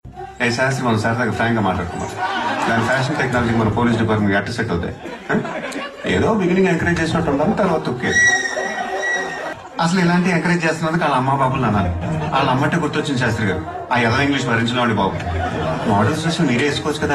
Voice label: funny

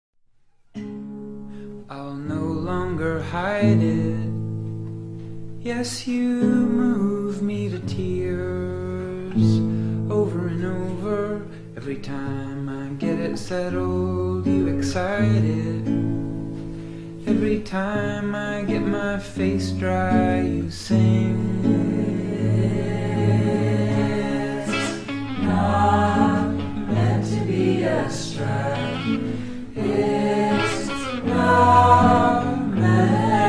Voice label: voice in headphones

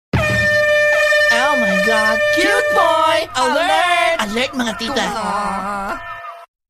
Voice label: cute boy alert; ringtones; sms

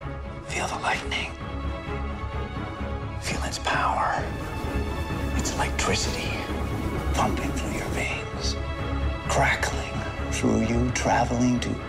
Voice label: whispering

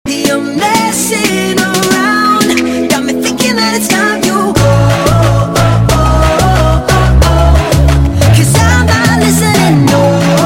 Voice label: Gibberish

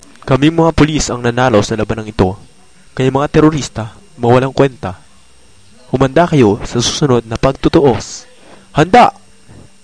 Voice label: win tagalog

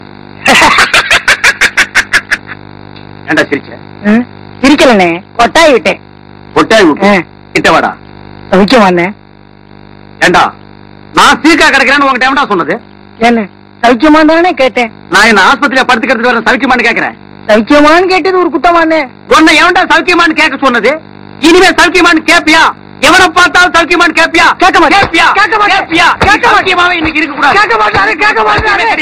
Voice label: comedy; koundamani

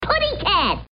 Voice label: cute tweety girl

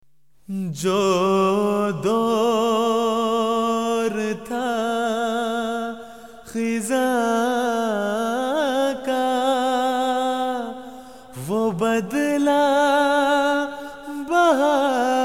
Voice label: Urdu Nazm Yeh Dua Hi

